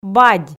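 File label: "бадь", Komi, female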